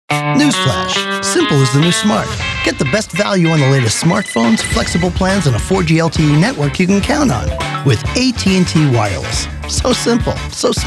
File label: Commercials